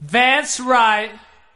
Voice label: that's right male

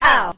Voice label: "oh", cartoon; ooh ahh

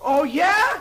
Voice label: "oh yeah" ANGRY

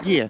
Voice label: "yeah", voice

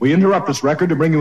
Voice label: "we interrupt this record to bring you", male; Voice

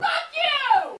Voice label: "fuck you" female; expletives

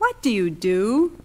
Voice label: what do you do, female; questions

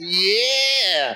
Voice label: "yeah" medium, voice

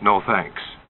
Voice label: no thanks, announcer; Voice; expletives

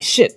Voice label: "shit", Voice expletives

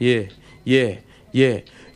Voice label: "yeah yeah yeah", voice

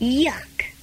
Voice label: "yuck", girl; Voice

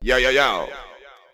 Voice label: "yo yo yo", Voice; ooh ahh

